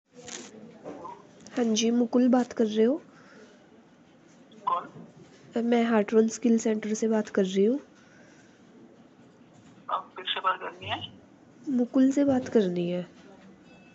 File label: call recording